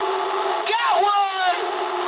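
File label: English player killed enemy mood high; speech; War Thunder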